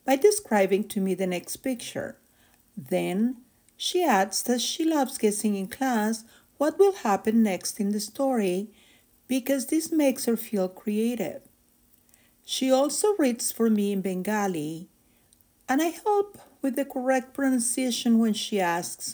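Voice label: English Speaking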